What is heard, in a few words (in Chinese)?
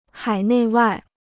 "海内外", Chinese